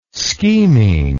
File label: "scheming", English